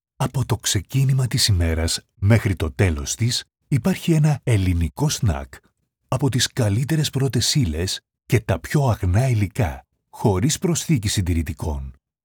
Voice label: Studio sample Vanguard V13 GAP pre73 DLX mkII

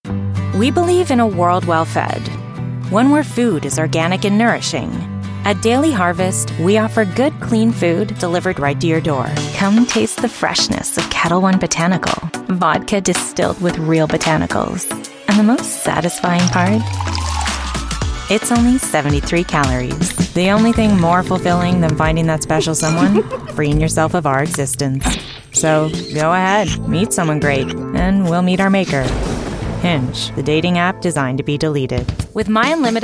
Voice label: Commercial

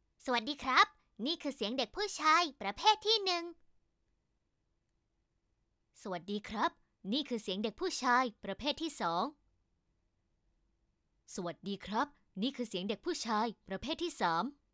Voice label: Young Boy

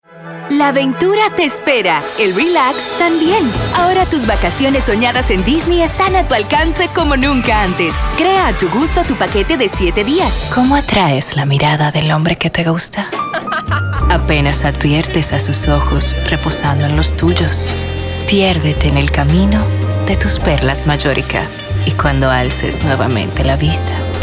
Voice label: Commercial

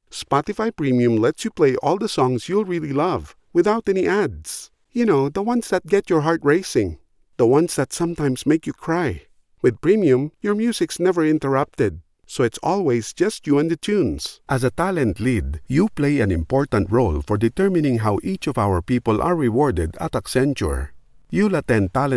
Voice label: PanAsian, Southeast Asia

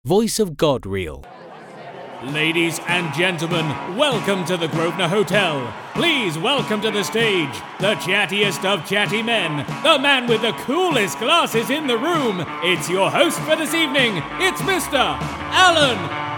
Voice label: Event Announcer

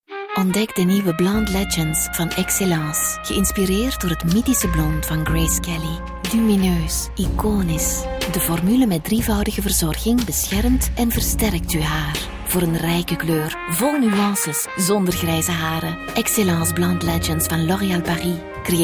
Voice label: TVC sultry diva; LOreal

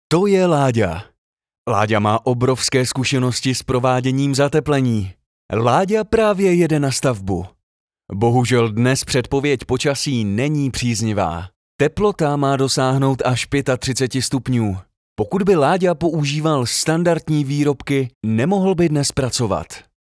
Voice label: Commercial

